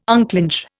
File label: "unclinch", Indian